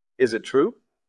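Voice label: VoiceActing es M2, voice acting, Spanish, male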